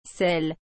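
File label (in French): "sel", French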